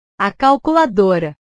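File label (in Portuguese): "a calculadora", Portuguese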